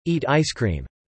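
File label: "eat ice cream" spoken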